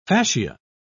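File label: "facia", British English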